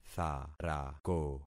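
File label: "tharako", concatenated syllables; Affixing experiment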